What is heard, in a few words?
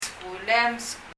"skuulem", パラオ語; 「あなたの学校」